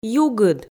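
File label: "югыд", Komi, female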